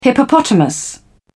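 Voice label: "hippopotamus", British English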